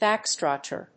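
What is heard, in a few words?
"backscratcher", English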